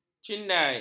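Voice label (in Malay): "cindai", Malay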